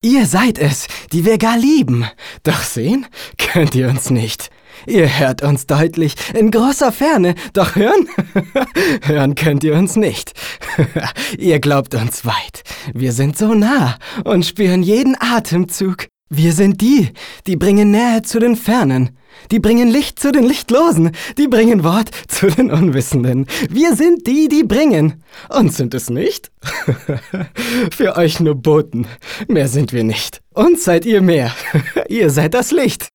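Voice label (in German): fröhlich, Männer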